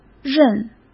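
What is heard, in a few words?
"任", Mandarin Chinese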